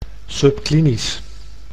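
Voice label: "subklinisch", Dutch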